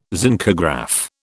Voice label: "zincograph", British